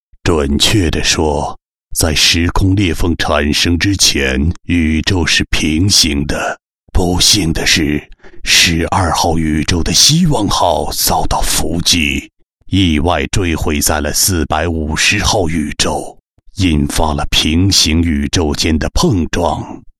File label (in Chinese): 男 动漫 游戏; 网易突击英雄游戏